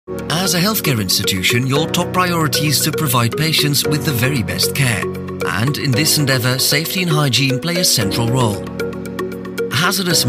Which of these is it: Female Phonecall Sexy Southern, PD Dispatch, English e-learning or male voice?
English e-learning